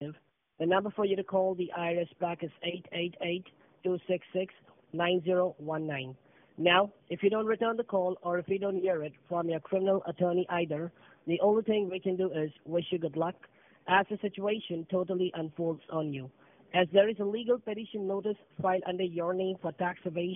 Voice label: voicemail